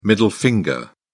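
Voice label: "middle finger", British English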